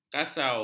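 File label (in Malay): "kasau", Malay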